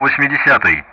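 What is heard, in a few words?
Speech Russian; Common Player Indexes 80th; DCS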